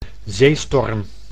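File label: "zeestorm", Dutch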